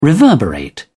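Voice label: "reverberate", British English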